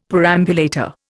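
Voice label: "perambulator", Indian English; Dictionary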